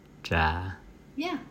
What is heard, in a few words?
in Vietnamese